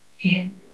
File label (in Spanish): Voice Acting es F0